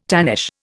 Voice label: "tannish", Indian